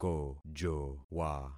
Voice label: "kojowa", concat syllables; Affixing experiment